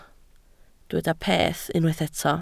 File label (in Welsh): "peth", Welsh